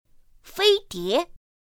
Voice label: "fēidié", pinyin; pinyin2; pinyinji